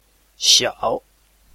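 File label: "xiao3", Mandarin Chinese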